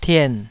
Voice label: "tien3", taiwanese Syllables